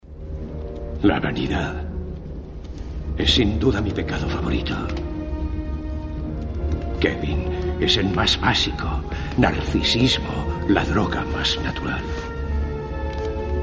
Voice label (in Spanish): Masculino